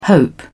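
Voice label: "hope", British English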